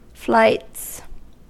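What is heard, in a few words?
"flights", US English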